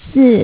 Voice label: "3" female mandarin; MediaTek; HumanVoice